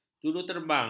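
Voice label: "juruterbang", Malay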